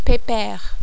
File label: "pepere", French